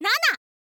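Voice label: "nana", Japanese, girl; voice; info girl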